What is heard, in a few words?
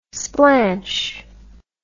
"splanch", English